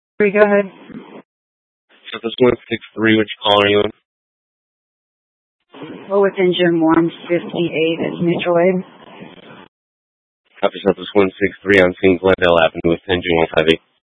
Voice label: Phx PD Fire Dispatch